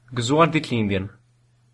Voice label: birthday, albanian